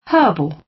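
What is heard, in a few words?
"herbal", British English; Time, history; Thời gian, lịch sử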